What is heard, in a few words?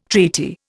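"treaty", Indian English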